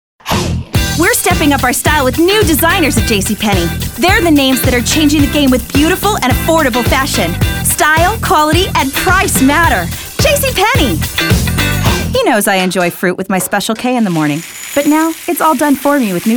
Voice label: Commercial, voice over